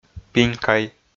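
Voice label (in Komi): "пинькай", Komi, male; audio dict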